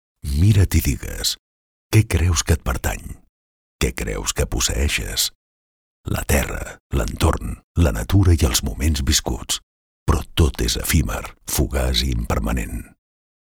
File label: catalan